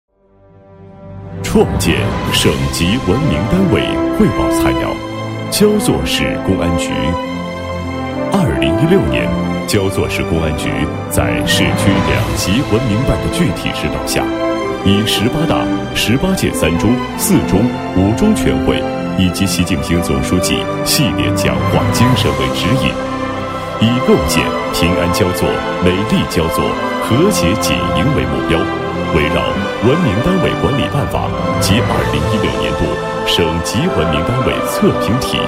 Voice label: 男 汇报专题 创建省级文明单位汇报材料

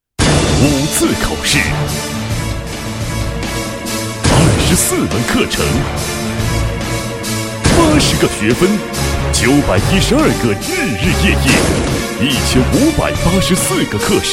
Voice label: 男 直销类 大气配音, 国语男声配音

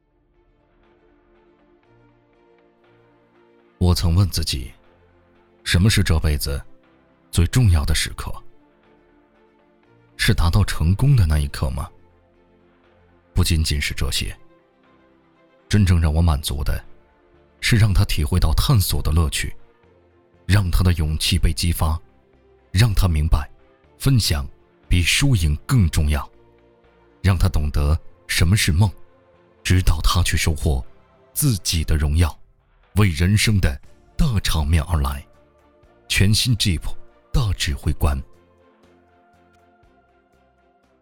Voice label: 汽车广告

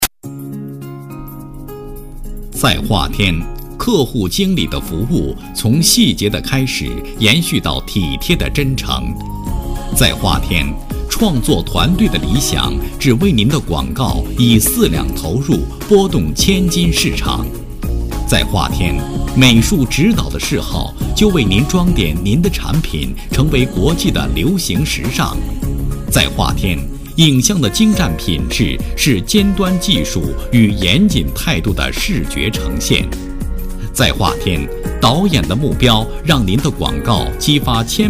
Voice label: 男, 国语男声配音

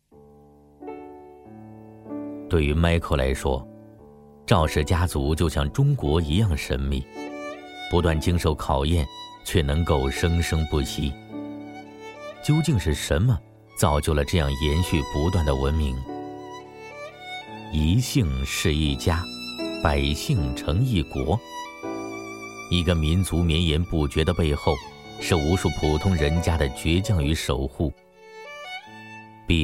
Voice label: 男 沉稳文艺 纪录片; 赵氏家族

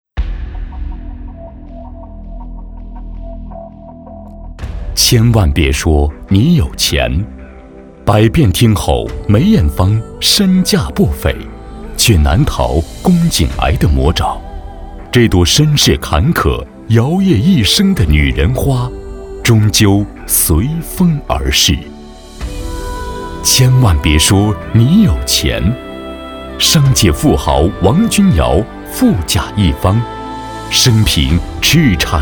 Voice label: 男 专题 煽情感人专题, 国语男声配音 浑厚; 千万别说你有钱

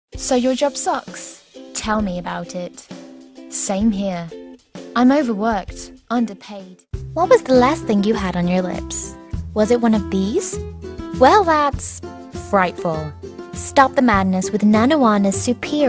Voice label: 外籍英女 英式 宣传片合辑

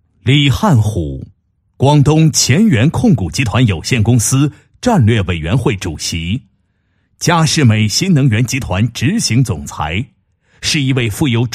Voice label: 男 人物专题, 个人简介; 某集团李某

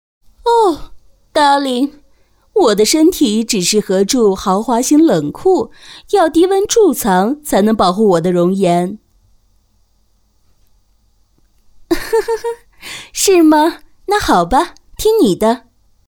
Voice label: 女 模仿 高贵, 国语女声配音; 卡介苗

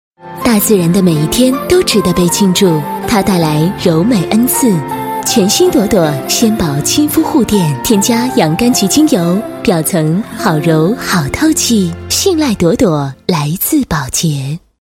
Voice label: 国语女声配音，广告 洗护类 护垫 年轻时尚

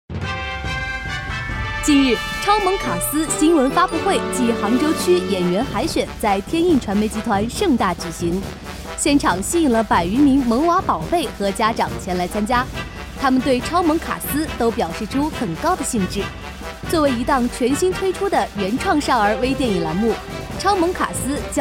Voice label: 女, 专题, 国语女声; 超萌卡司新闻发布会

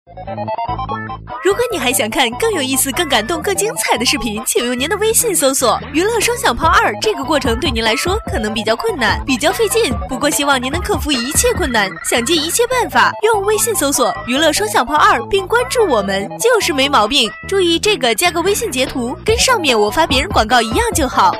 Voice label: 女 娱乐双响炮, 国语女声配音 彩铃广告

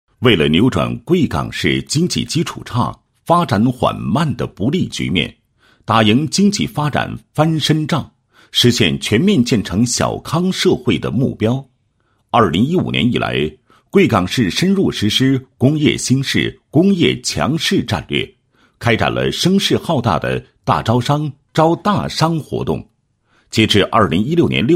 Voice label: 男, 项目解说; 华奥汽车项目介绍

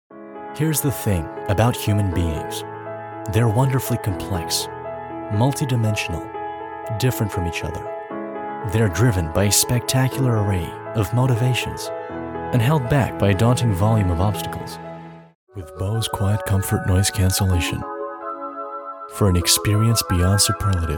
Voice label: 外籍英男-抒情深沉嗓音

